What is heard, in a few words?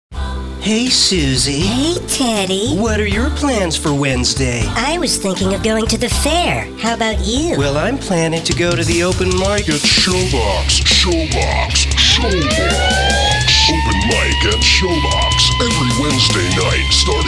Ad Web Venue Promo